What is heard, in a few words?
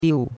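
"diu4", Chinese; Cours-de-Chinois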